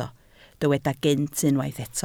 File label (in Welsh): "gynt", Welsh